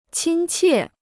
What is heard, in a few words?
"亲切", Chinese